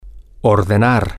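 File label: male, spanish; to Order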